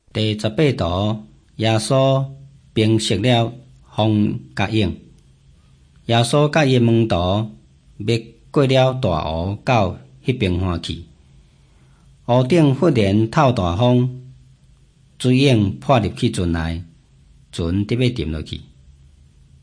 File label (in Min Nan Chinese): taiwanese, drama